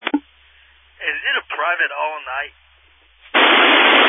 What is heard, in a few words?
Family Radio Service (FRS)